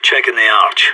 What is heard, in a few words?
checking arch, radio; SAS